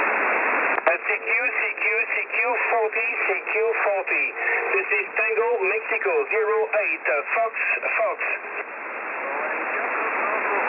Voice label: qso 7097khz